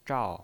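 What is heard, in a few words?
"zhao" Mandarin Chinese pinyin voice, fourth tone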